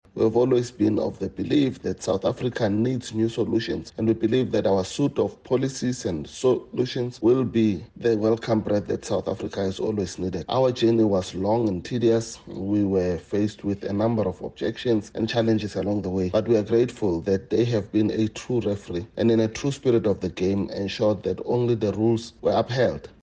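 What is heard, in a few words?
English; newsflash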